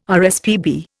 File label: "RSPB", Indian